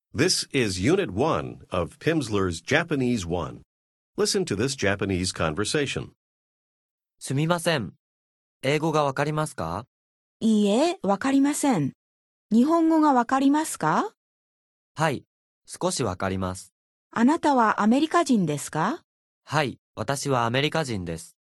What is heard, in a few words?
english japanese; multi-language